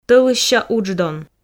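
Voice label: "тӧлысся удждон", Komi, female; audio dict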